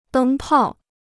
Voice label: "灯泡", Chinese

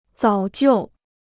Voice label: "早就", Chinese

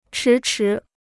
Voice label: "迟迟", Chinese

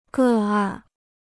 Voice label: "个案", Chinese

